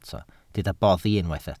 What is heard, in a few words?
"boddi", Welsh